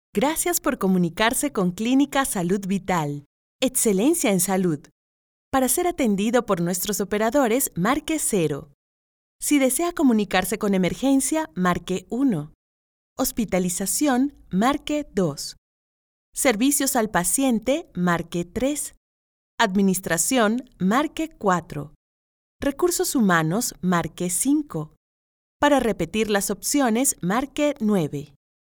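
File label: Spanish Latin American IVR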